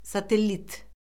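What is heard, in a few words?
"satellit", Azerbaijani; Orfoqrafiya Lüğəti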